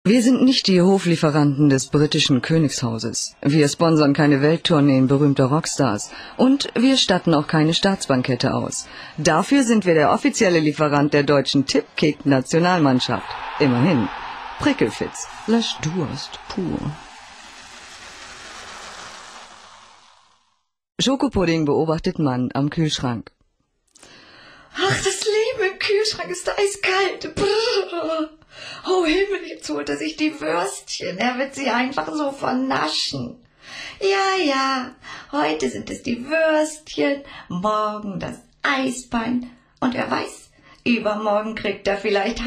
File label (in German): mix trailer, frauen